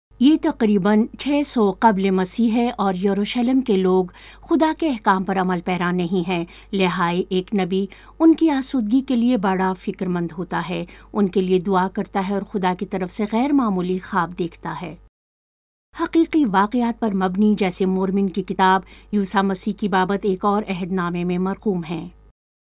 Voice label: Urdu